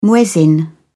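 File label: "muezzin", British English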